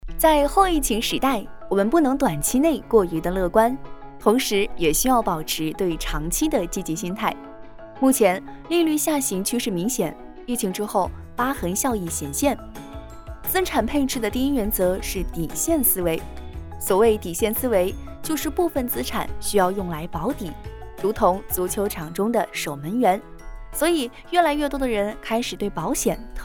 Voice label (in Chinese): 女 国 大音 轻快